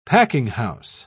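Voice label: "packinghouse", British English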